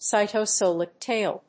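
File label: "cytosolic tail", English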